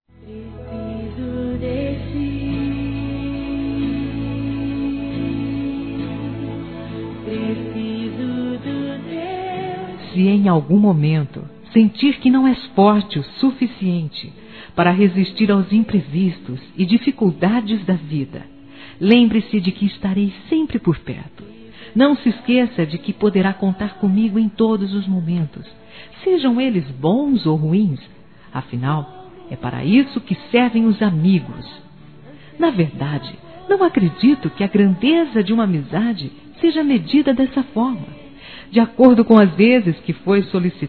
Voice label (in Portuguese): Telemensagens, Aniversários, Fem; ANIV AMIZADE; Telemensagens 2017; ANIV. Evangelico